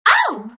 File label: naga pain; dota; naga siren